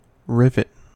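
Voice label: "rivet", US English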